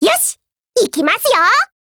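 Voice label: GO ATTACK, Japanese; BrenTen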